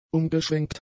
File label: "umgeschwenkt", German; adjectives